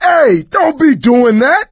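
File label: team kill accident, voice, male; Left 4 Dead 2; coach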